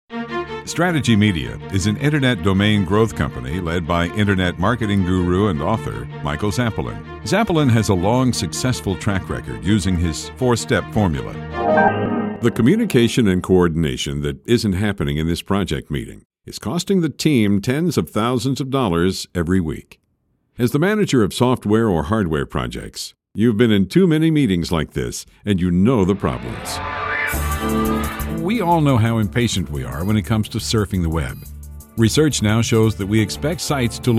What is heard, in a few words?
Narrative